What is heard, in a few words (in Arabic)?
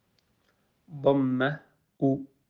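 "ضمة", Arabic; quiz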